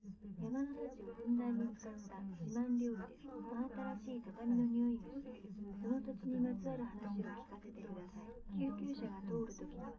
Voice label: female liverec; underdetermined; SiSEC11

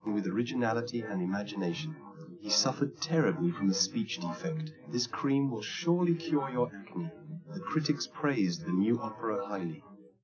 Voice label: male; SiSEC11; underdetermined

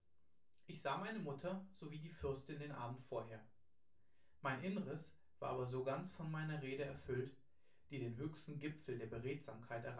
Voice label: male; synthconv 1m sim; SASSEC07

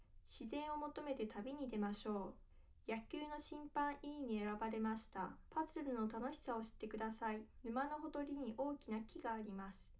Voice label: female liverec sim

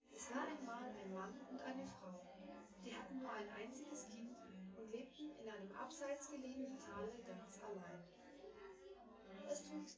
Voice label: female synthconv

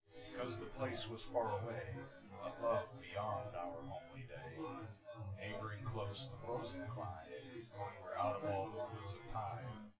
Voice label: male synth conv; SiSEC10; underdetermined

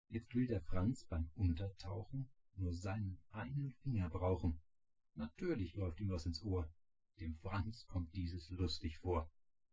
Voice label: male synthconv 130ms 1m

